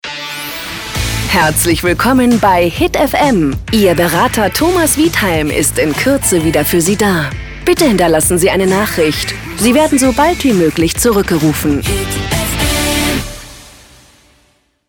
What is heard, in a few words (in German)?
Telefonansage